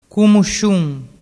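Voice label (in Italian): "cumusciun", dialect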